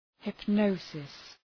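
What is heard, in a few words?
"hypnosis", English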